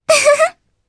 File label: Happy, jp; Mirianne; kingsraid